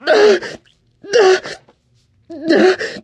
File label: radio combat color; Left 4 Dead 2; churchguy